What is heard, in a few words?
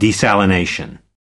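"desalination", US English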